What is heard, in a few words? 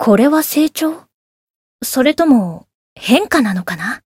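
Voice growth Japanese; isuka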